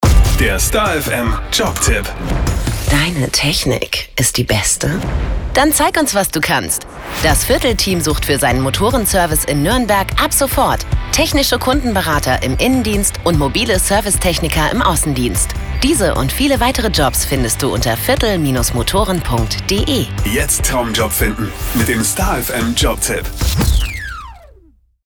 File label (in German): radio jobtipp; star fm